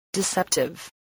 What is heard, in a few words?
"deceptive", English